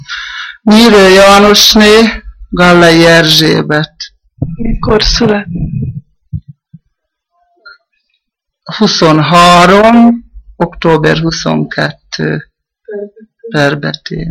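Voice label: dialect collection; nyelvjaras gyujtesek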